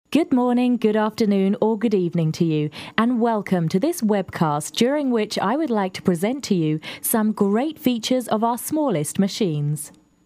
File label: narration